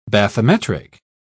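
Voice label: "bathymetric", British English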